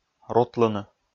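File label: "рутлыны", Komi, male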